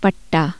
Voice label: Hindi a fold in cloth